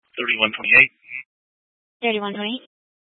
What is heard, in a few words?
Police Department Dispatch